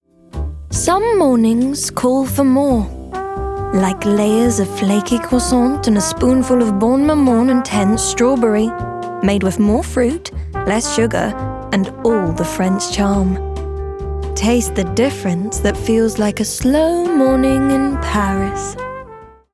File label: RP ('Received Pronunciation'), Smooth, Warm, Reassuring; Bonne Maman